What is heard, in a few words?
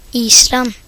iceland, nb; gcompris; geography